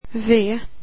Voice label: "w", swedish alphabet

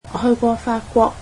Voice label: cantonese I visited France

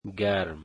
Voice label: "warm", dari

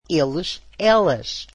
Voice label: portuguese they

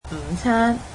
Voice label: "lunch", Cantonese

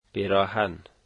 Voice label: shirt, Dari

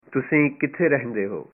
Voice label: punjabi Where do you live

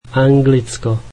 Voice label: slovak England

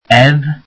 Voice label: welsh alphabet f

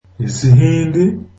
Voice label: zulu Hindi

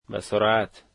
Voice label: "quickly", Dari

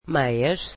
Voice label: portuguese socks

